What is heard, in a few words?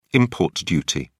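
"import duty", British English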